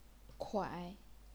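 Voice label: "kuai", Chinese, third tone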